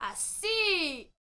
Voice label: MEX FEMALE, World Voices; ILIO; Vocal Planet VOL-5

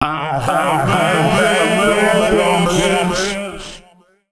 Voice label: male, voice; Mutekki Media; Dance Ultra Voice VOL-1

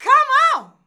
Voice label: "come on", shouts; Best Service; Hyper Dance

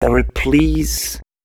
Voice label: male, spoken hooks; Mutekki Media; Dance Ultra Voice VOL-1

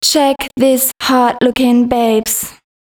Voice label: female, spoken hooks

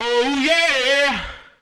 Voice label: Male FX Vocals; Houseworx

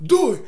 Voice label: "rumania", shouts; Best Service; Hyper Dance

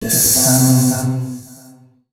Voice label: male, voice; FX; Mutekki Media; Dance Ultra Voice VOL-1

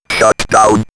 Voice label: Robot Shut Down, robot vox; X-Static Goldmine

